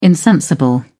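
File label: "insensible", British English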